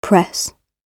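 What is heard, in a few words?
"press", British English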